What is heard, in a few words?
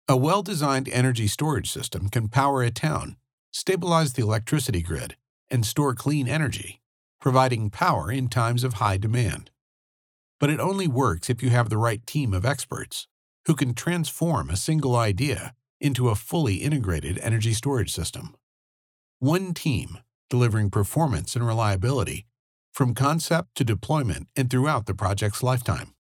Explainer Narration